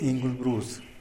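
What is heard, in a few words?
"ingulbrus" type of spider, Zapotec; animals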